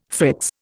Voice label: "fritz", Indian